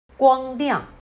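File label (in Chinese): "光亮" Mandarin Chinese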